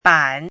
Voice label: "ban3", pinyin

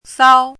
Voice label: "sao1", pinyin

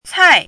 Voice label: "cai4", pinyin

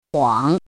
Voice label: "huang3", pinyin

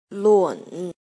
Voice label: "lun3", pinyin

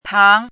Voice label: "pang2", pinyin

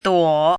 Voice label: "duo3", pinyin

